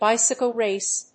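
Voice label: "bicycle race", English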